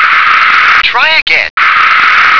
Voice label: "try again", English